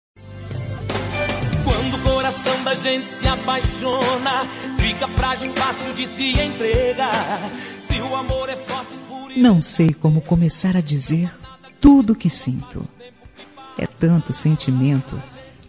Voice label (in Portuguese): Romântica, F; Deixa Ela e Fica Comigo